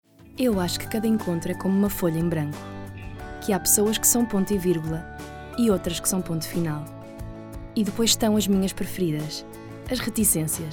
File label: female portuguese comercial; ideasonora